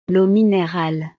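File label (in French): "leau minerale", French; El agua mineral; snacks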